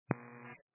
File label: 122 725MHz, scanner radio